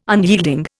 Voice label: "unyielding", Indian